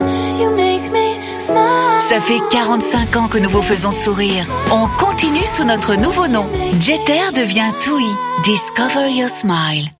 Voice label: french commercial